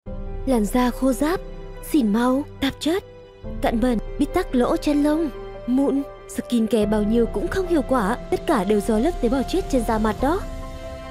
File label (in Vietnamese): voice Nu Mien Bac; Voice-off